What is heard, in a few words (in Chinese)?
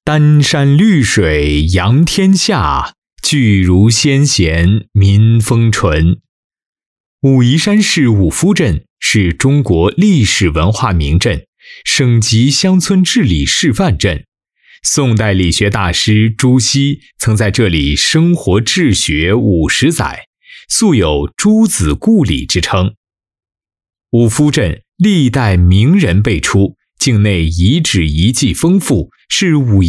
男-抒情类 汇报 磁性, 浑厚大气 质感磁性